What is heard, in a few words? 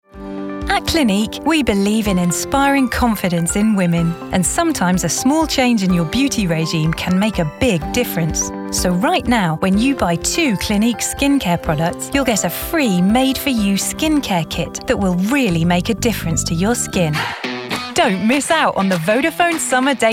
Commercial Montage